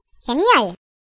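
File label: "Flawless", Spanish, voices; hedgewars; Default es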